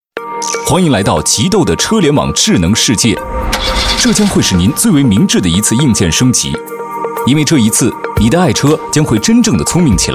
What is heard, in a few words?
Mandarin Voice Sample